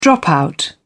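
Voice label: "dropout", British English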